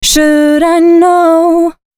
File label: female a 140, short hooks, Dance Voice